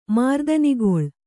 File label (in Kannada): "ಮಾರ್ದನಿಗೊಳ್", Kannada